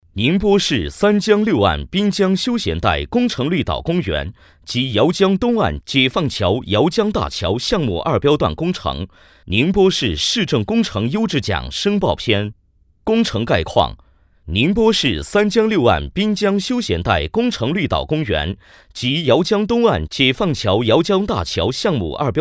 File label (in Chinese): 男 工程汇报; 宁波解放桥